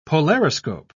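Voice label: "polariscope", British English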